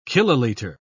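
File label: "kilolitre", British English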